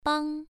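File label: "bang" Mandarin, first tone, pronunciation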